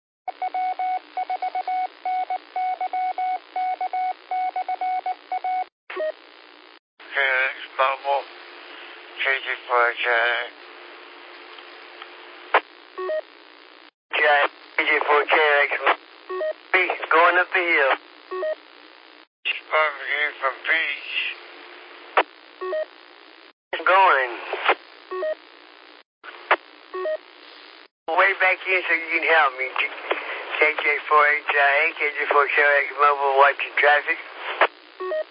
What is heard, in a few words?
qso rec simplex logic